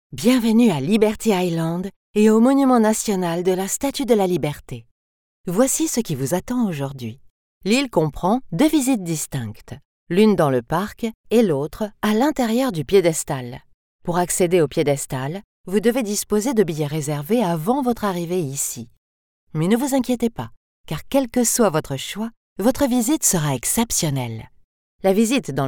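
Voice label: French, female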